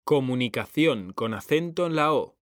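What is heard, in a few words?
"COMUNICACIÓN", Spanish; mekanta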